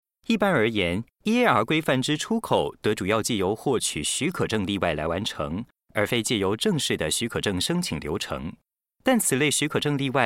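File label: Taiwan, male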